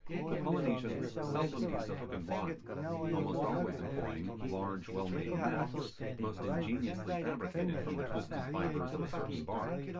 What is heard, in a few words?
male synthconv mix